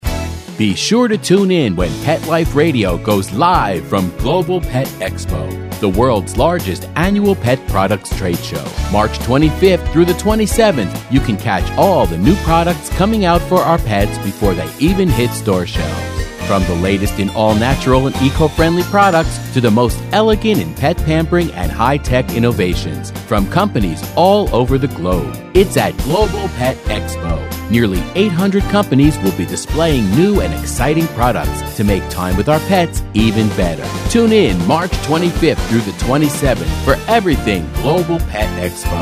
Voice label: Commercial; PetExpo